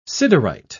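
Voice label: "siderite", British English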